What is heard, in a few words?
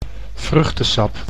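"vruchtesap", Dutch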